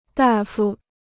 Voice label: "大夫", Chinese

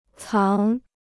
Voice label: "藏", Chinese

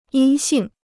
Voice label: "阴性", Chinese

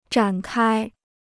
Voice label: "展开", Chinese; zhǎn kāi; to carry out

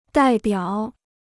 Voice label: "代表", Chinese

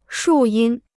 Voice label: "树荫", Chinese

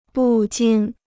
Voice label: "不禁", Chinese

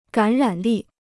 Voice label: "感染力", Chinese

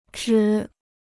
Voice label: "值", Chinese

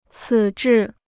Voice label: "此致", Chinese

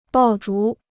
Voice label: "爆竹", Chinese

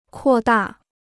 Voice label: "扩大", Chinese

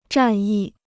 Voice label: "战役", Chinese

